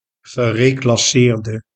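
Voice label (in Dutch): "gereclasseerde", Dutch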